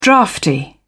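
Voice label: "draughty", British English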